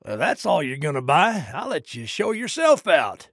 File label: trader male neutral sale accepted